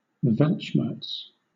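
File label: "weltschmerz" English, British; pronunciations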